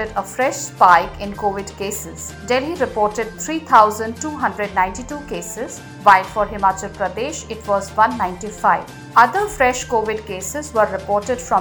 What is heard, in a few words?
english news